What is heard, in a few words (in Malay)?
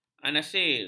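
"anasir", Malay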